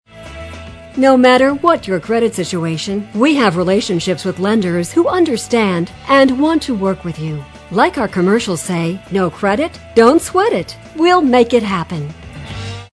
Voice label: Auto Sales Female On Hold

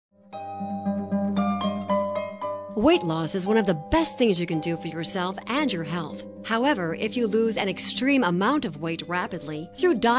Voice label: Medical Office Female On Hold

